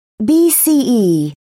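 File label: "bce", English, British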